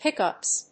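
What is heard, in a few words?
"pickups", English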